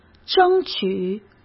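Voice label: "争取", Mandarin Chinese; chinese-flashcards; hsk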